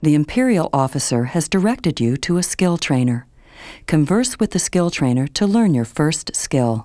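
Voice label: tutorial skill trainer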